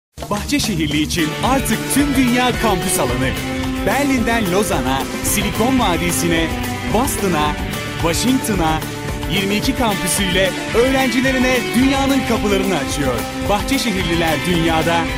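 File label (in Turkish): enerjik tempolu, seslendirme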